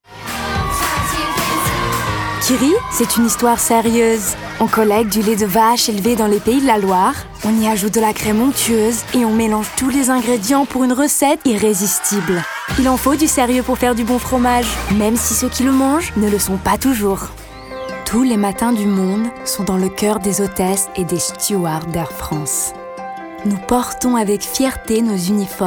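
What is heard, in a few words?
commercial French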